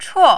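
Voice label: "chuo", Mandarin, tone 4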